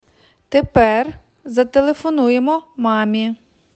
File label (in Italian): Ucraino